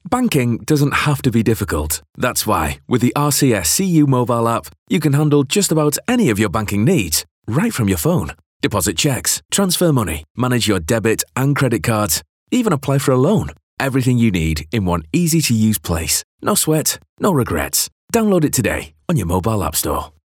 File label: Professional